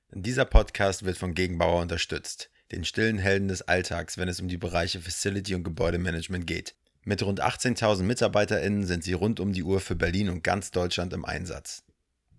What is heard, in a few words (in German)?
Werbung; Gegenbauer